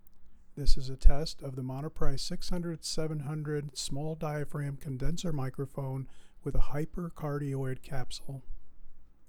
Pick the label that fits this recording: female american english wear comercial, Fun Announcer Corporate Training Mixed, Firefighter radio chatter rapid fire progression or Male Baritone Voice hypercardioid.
Male Baritone Voice hypercardioid